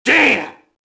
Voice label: mobster alert; Max Payne